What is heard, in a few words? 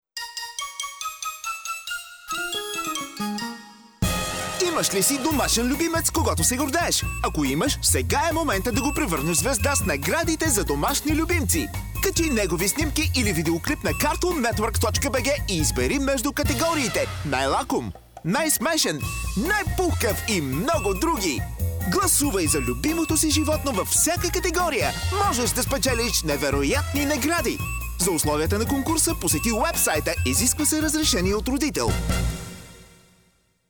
bulgarian promos